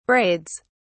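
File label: "braids", British English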